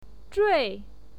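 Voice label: "zhui4", Mandarin Chinese syllable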